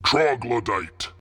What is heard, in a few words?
Troglodyte shouts; AncientBeast